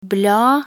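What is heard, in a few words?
"bla", Norwegian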